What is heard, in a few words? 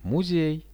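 "museum", Russian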